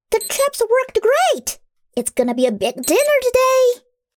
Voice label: 女学生; 生存篇

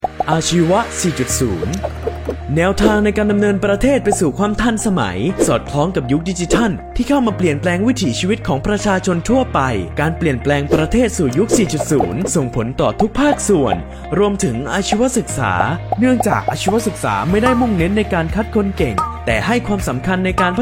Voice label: 泰轻松愉悦使用说明

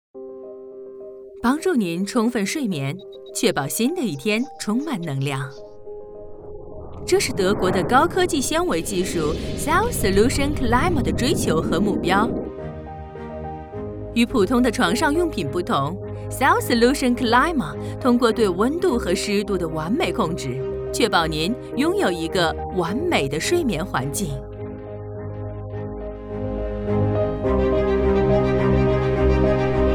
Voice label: 宣传片纺织产品新技术

